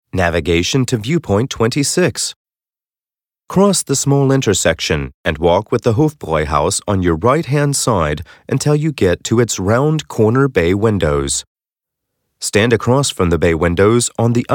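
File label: English US, commentary, neutral; Kommentar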